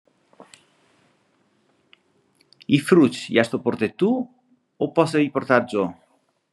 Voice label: Fassano (San Giovanni di Fassa, Province of Trento); I fruc i asto portè tu o posse i portar gio?; AlpiLinK